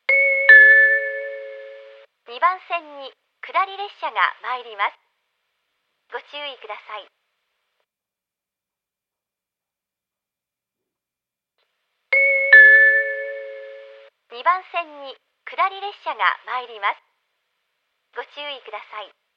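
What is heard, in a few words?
platform 2 down approaching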